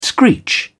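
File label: "screech", British English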